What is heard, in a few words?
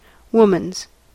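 "woman's", US English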